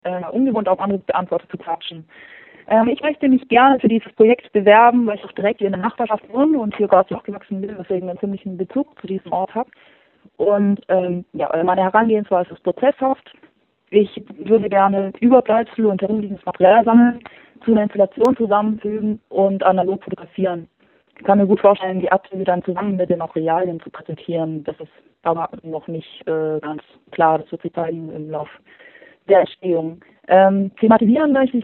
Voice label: Voicemail